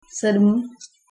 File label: seven, czech